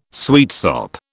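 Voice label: "sweetsop", British English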